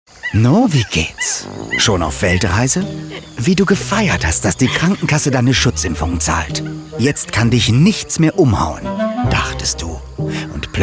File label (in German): sprachprobe werbung off; novigehts heimweh